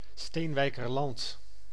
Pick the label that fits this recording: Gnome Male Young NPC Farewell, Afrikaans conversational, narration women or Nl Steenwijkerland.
Nl Steenwijkerland